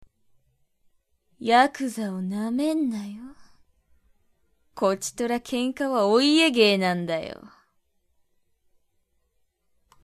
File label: anego, voice